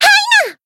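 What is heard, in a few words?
Voice attack Japanese; myungwha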